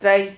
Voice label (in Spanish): "tray", Silabas